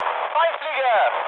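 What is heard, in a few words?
German enemy detected mood med, speech; War Thunder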